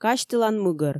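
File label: "казьтылан мыгӧр", Komi, female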